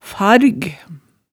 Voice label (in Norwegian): "farg", hemsedalsmaalet